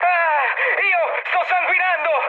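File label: Italian damaged pilot mood med, speech; War Thunder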